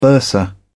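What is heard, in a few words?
"bursar", British English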